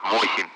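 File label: Speech Sound Russian Common Allied Flight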